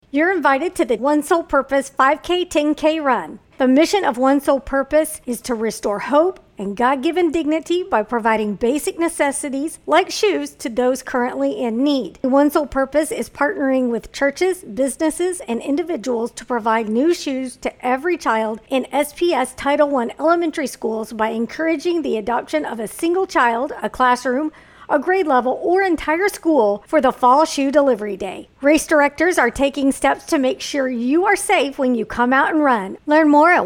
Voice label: Promo; OSP 2021